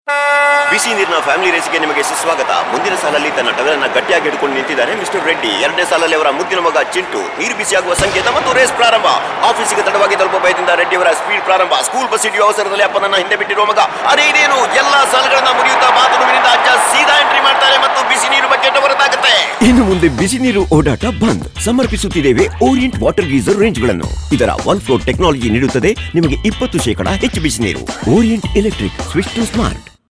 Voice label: narration Kannada